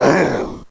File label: orc hit; wesnoth